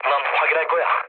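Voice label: Korean player killed enemy mood low; War Thunder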